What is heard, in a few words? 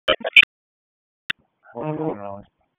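Police Downtown District Dispatch, p25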